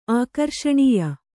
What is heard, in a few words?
"ಆಕರ್ಷಣೀಯ", Kannada